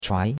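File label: "chuai2", Chinese; Cours-de-Chinois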